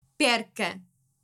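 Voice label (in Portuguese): "perca", português europeu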